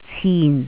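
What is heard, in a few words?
"cin4", Cantonese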